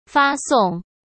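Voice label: "发送", Mandarin Chinese; hsk; chinese-flashcards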